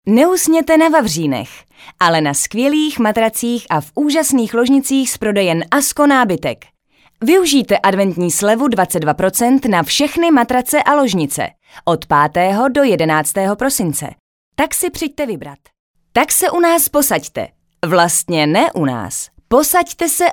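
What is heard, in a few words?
Czech, female; Professionelle Sprecher und Sprecherinnen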